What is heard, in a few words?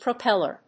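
"propellor", English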